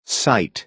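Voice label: "sight", American English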